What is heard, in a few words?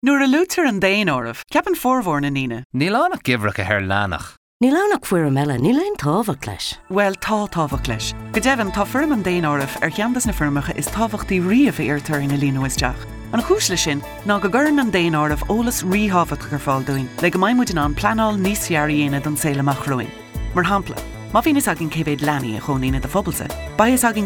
census irish